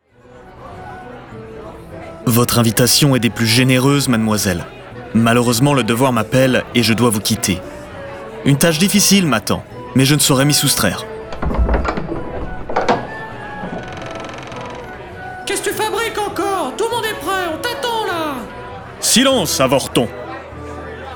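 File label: Character Natural (French)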